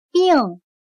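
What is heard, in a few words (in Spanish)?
"病", chino mandarin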